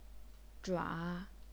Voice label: "zhua3", chinese pinyin